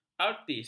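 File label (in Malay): "artis", Malay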